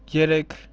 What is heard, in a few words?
"jerek" Armenian